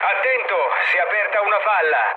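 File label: Italian damaged water mood low, speech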